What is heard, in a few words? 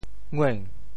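"ngueng2", Teochew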